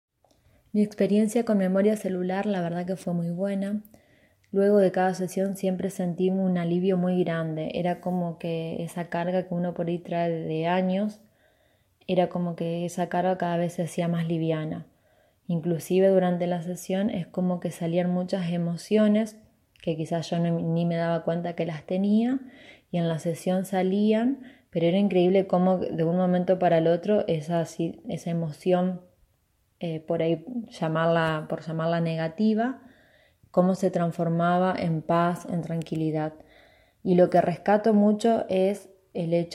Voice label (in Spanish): Testimonio; Memoria Celular